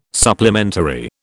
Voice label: "supplementary", British

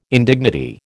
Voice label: "indignity", American

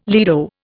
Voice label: "lido", Indian

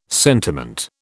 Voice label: "sentiment", British

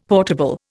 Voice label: "portable", Indian; Dictionary

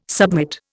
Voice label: "submit", Indian

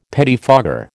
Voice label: "pettifogger", American

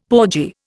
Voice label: "porgy", Indian; Dictionary

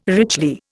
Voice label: "richly", Indian

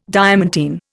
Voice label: "diamantine", Indian

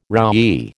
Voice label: "roughy", American

